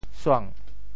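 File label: "suang2", Teochew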